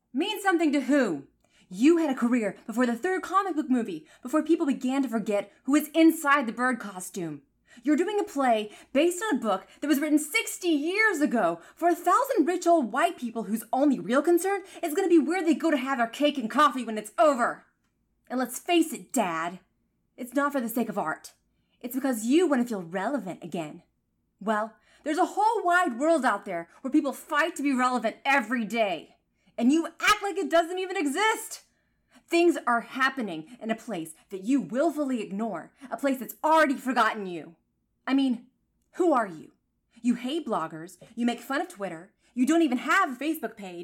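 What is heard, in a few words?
female for any, Monologue; F4A; Sam's speech from Birdman; Monologue Challenge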